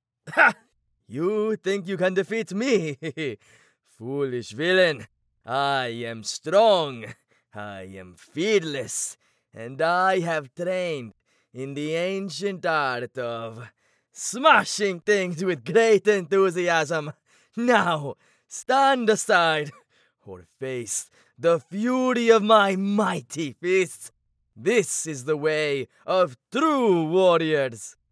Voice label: Russian Accent Loud Boisterous Friendly Overwhelming Huge; Minsc